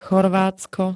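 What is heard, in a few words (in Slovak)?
"Chorvátsko", Slovak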